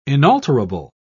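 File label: "inalterable", British English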